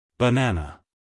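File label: "banana", American English, male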